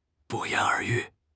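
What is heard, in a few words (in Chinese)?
"不言而喻", Chinese; HSK6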